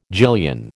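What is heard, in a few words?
"gillion", American English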